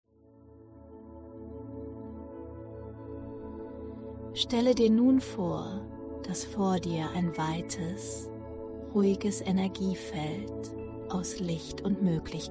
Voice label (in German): Meditation; Zeitlinienspruenge